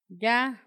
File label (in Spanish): "boca", Paipai; Vocabulary; INALI